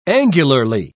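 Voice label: "angularly", British English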